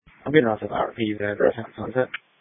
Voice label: Sheriff Dispatch